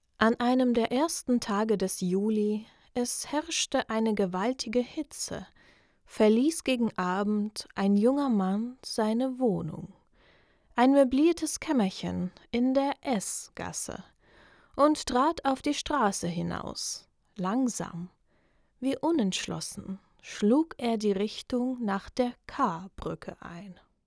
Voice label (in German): Erzählertext; Demo-Erzählertext; Schuld und Sühne kurz